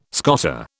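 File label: "scoter", British English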